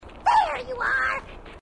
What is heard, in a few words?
grunt; h2dialogue; grunt crazy